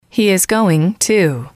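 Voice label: He is going to, female, English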